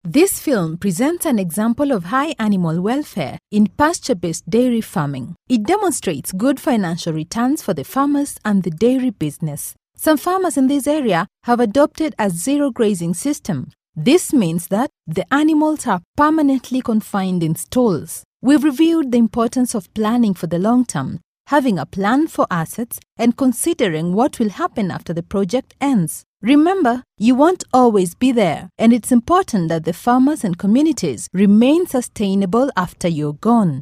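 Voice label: English Afrikaans Female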